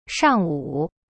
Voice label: "上午" shang wu, chinese